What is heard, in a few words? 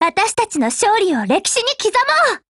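WIN, Japanese, Voice; Lewis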